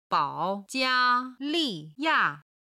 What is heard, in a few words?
"bao3jia1li4ya4", chinese